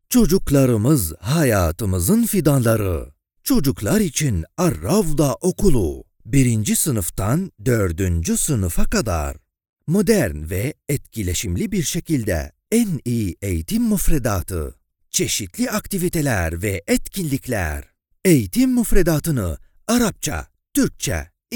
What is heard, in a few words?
turkish commercial